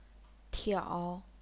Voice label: "tiao3", chinese pinyin

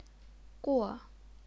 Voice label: "guo4", chinese pinyin

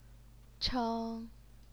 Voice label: "cheng1", Chinese pinyin

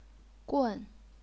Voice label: "gun4", chinese pinyin

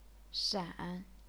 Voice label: "shan3", chinese pinyin

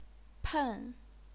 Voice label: "pen4", chinese pinyin

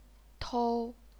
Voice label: "tou1", chinese pinyin

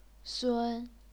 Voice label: "sun1", chinese pinyin

